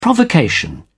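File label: "provocation", British English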